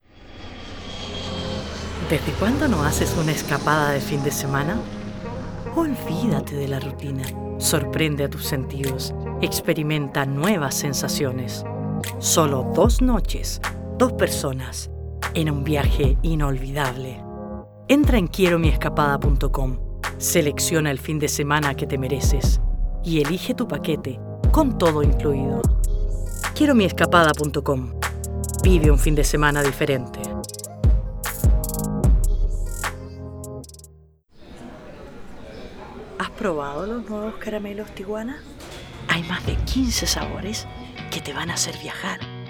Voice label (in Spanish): comercial esp